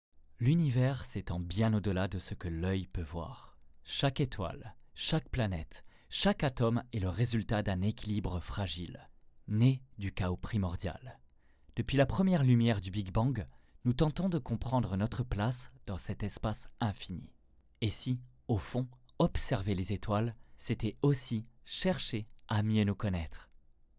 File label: French Narration